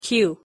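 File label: "q" pronunciation English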